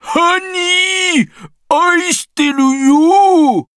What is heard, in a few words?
victory, jp